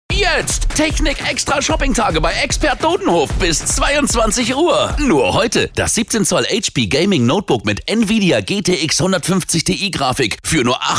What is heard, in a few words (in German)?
Aufgeregt; Expert Dodenhof